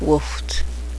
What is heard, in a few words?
"egg", Cheyenne language